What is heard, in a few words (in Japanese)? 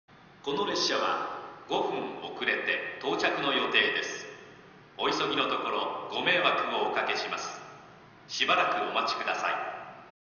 久留米駅 11番のりば遅延放送・遅れ約5分（つばめ 博多行き）; ※案内放送部分はカットしております。; 九州新幹線SIRIUS（シリウス）放送